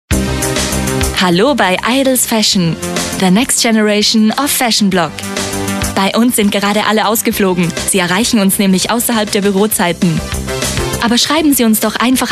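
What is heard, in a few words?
german voice over female interactive voice response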